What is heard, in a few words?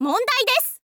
info girl